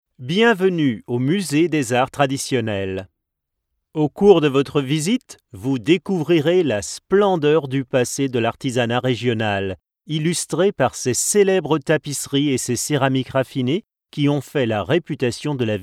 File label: AUDIO GUIDE museum, Professionnal male voice over talent delivers native French voiceovers; Touristische Führungen; Museen